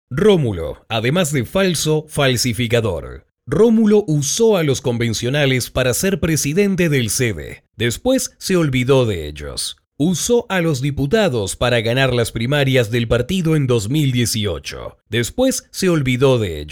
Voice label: Spanish (LA), Male